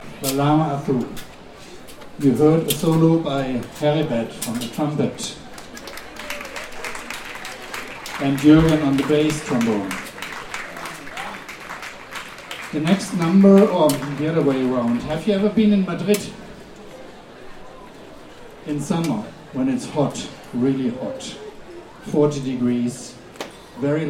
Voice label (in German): Ansage